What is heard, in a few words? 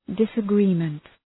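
"disagreement", English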